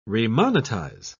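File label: "remonetize", British English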